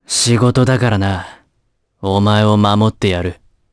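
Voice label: vox dialogue, voices, Japanese; kingsraid; voices heroes jp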